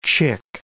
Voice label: "chick", English